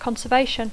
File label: "conservation", English